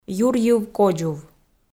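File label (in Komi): "юрйыв кодзув" Komi, female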